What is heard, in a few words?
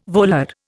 "volar", Indian